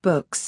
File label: "books", English; express english